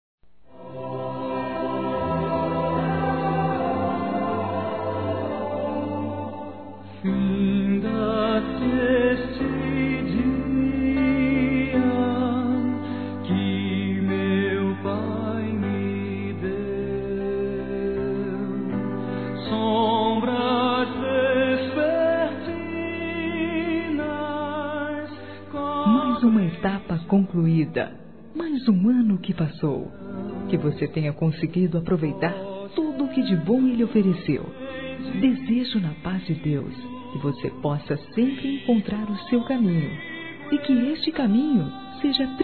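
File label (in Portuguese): ANO NOVO RELIGIOSO, Feminino; Telemensagens; Natal e Ano novo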